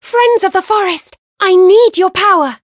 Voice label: Voice chant; veina

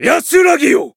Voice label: finish, jp

